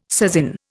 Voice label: "sasin", Indian